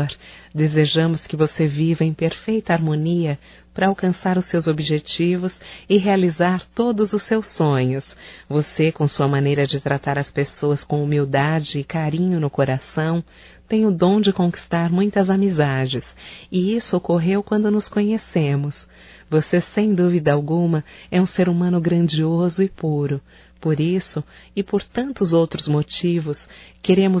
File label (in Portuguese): Aniversário Feminino, Telemensagens; Aniversário Amiga Nós Desejamos Feminino